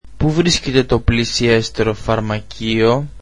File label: greek Where is the closest pharmacy